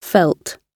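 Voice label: "felt", British English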